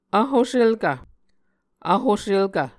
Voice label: "vhoselkv", Muscogee

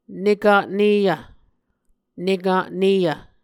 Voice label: "nekatneyv", Muscogee

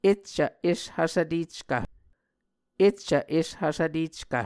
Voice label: "eccv eshvsvteckv", Muscogee; Mvskoke Words with Repeated Consonants; Onvkv Ohhvyayices; A Dictionary of Creek/Muskogee; SIL International

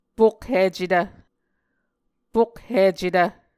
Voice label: "pokhicetv", Muscogee

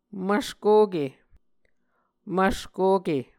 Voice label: "mvskoke", Muscogee

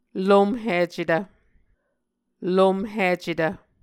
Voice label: "lomhicetv", Muscogee

